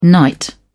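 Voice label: "knight", English, British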